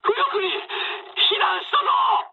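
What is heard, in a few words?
Japanese right wing mood med; War Thunder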